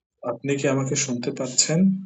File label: voice message